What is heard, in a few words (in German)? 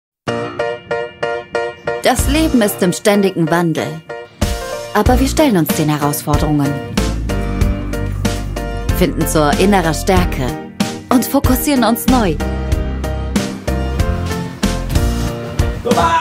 Werbung; GARMIN